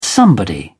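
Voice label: "somebody", British English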